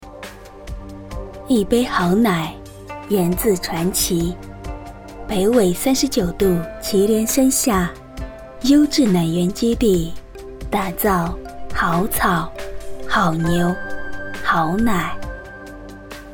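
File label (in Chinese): 女品质广告乳液时尚典雅; 祁连山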